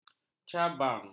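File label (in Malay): "cabang", Malay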